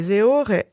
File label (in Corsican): sei ore, Moita Verde (nord)